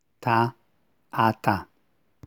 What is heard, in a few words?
t aspirated